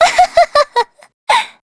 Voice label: Vox Happy; kingsraid

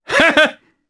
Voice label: Vox Happy, jp; kingsraid; voices heroes jp

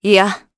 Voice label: Vox Deny jp; Hilda; kingsraid

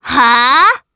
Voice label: Deny, jp; heroes; Viska; kingsraid

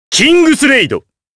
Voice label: Vox, jp; Kain; Kingsraid

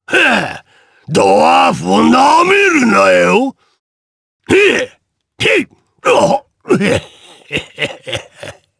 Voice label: vox, jp; Phillop; kingsraid

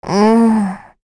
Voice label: Deny, kr, voices; heroes; Rehartna; kings raid

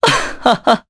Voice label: Vox Happy, jp; Pavel; kingsraid

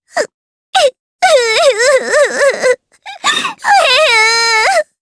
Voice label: Sad, jp; May; kingsraid

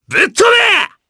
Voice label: Vox Skill jp; kingsraid

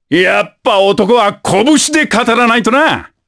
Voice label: Vox Victory jp; Baudouin; kingsraid

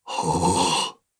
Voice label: Vox Casting, jp; Dakaris; kingsraid

